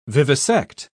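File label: "vivisect", British English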